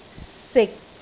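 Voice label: "sik6", Cantonese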